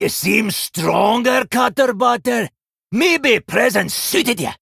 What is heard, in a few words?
Male Dwarf; Tavish; Scabbs